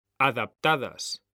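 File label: "adaptadas", Spanish, male; mekanta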